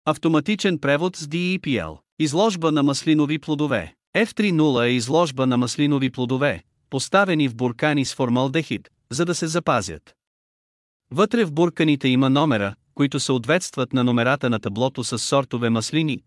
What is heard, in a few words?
bulgarian; oliveoilmuseum